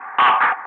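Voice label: "running", English; Ka-50; Wingman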